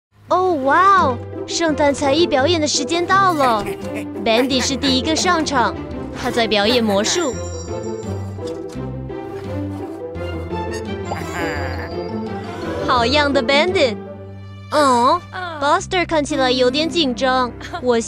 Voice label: chinese mandarin animation